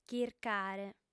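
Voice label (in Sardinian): "chircare", female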